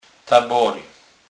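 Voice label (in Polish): "tabory", Kurpie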